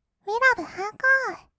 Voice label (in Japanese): うぃらぶはーこー, kawaii voice; 音ゲー